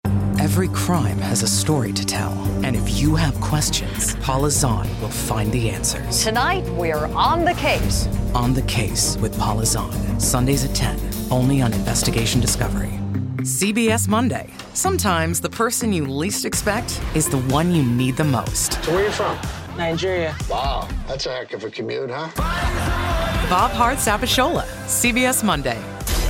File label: Promo Biting Intense Powerful Deep Fun Friendly Sarcastic Urban; Relatable; Promo and Trailer Voice Over